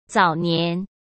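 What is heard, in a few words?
"早年", Mandarin Chinese; chinese-flashcards; hsk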